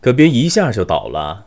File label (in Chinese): 攻击, BattleCry; 南宫三八